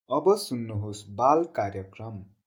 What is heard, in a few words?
nepali radio program; grade1; communication